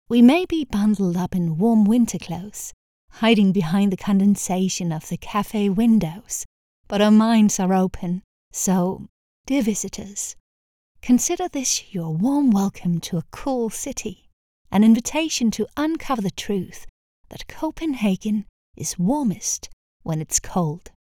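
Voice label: Danish, female, English; VisitCopenhagen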